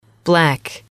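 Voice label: "black", English, female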